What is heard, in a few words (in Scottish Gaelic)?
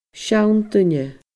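"seann duine", Scottish Gaelic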